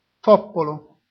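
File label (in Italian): "Foppolo", Italian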